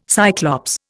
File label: "Cyclops", Indian